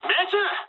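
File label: Japanese bomb success mood high; War Thunder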